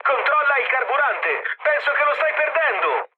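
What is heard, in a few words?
Italian tank damaged mood med, speech; War Thunder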